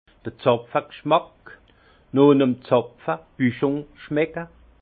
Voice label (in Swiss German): "zapfe gschmack", alsace; vin; goût de bouchon